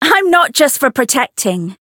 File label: chant